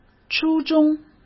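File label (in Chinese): "初中", Mandarin Chinese; hsk; chinese flashcards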